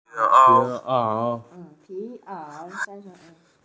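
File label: "fur coat", Mandarin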